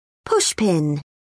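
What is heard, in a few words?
"pushpin", British English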